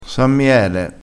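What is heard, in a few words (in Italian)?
"san miele", vocabolario dialettale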